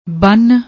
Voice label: "bann", Punjabi

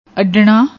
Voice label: "aadna", Punjabi; ਅੱਡਣਾ

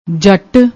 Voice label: "jatt", Punjabi

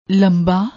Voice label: "lamba", Punjabi; ਲੰਬਾ

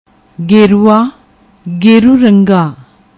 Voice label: "gerua", Punjabi; ਗੇਰੂਆ

